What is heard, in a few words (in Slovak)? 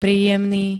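"príjemný", Slovak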